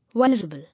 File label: "Vulnerable", English